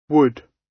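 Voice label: "wood", British English; Time, history; Thời gian, lịch sử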